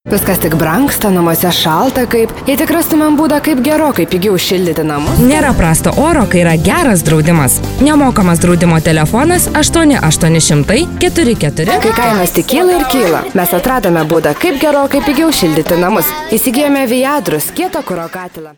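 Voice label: lithuanian female commercial; voice demos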